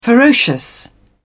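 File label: "ferocious", English, British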